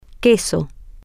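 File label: cheese, Spanish, female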